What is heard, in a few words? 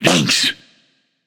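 "Thanks", male; smite; olorun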